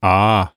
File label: "Ah", Human Male Middle Age